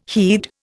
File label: "heed", Indian